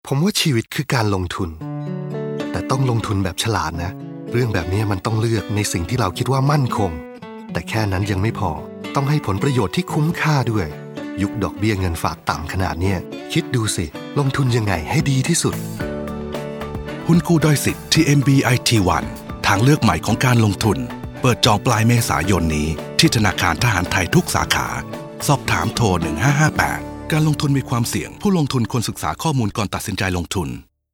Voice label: Radio spot; TMB ITONE